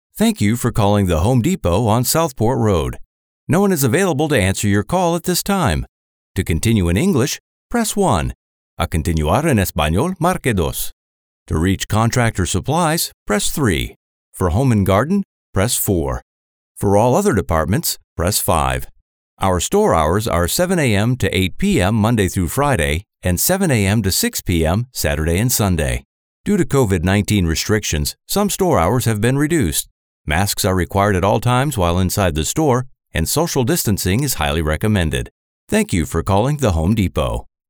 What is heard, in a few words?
On Hold IVR Messaging